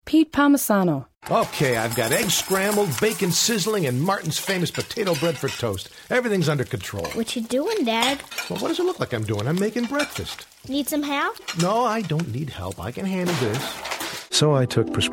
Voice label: Men Commercial

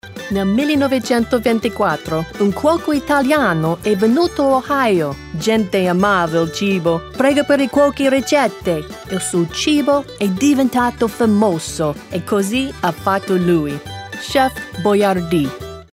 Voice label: Italian

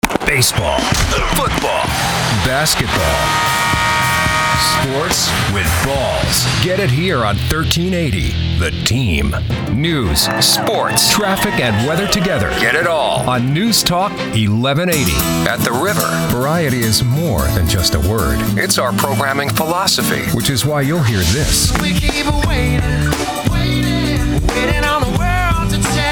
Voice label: Men Radio Imaging

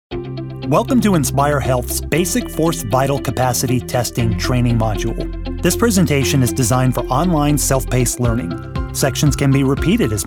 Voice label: NARRATION, Men Narration

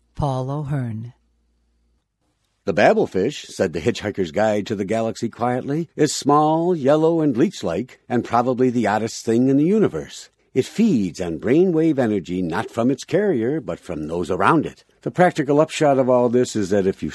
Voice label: Men Audio Book

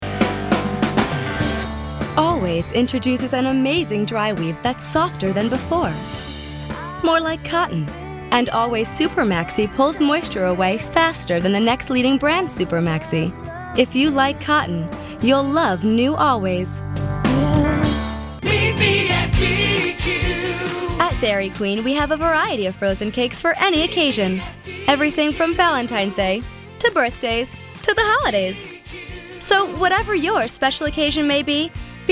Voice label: comm, Women Commercial

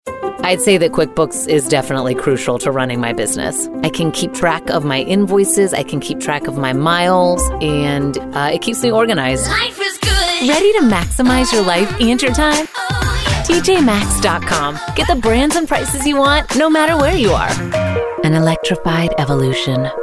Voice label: Commercial, Women

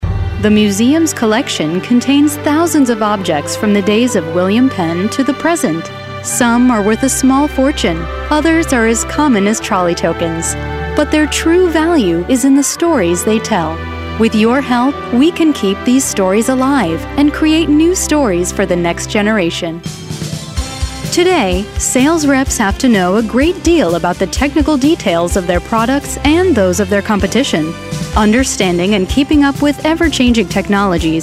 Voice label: Narration, Women

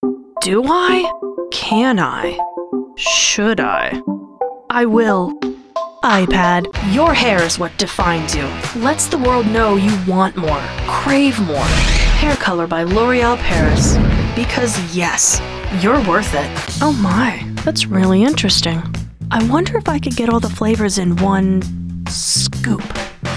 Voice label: Women Commercial

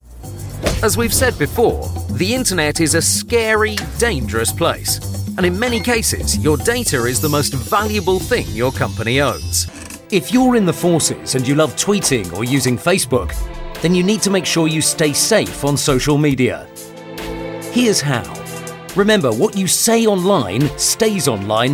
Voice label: corporate narration, Men Narration

